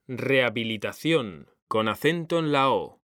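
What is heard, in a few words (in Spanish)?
"REHABILITACIÓN", Spanish; mekanta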